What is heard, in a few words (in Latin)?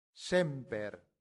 "semper", latin; santillana